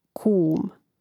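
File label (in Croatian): "kum", Croatian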